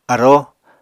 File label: "aróo", Apatani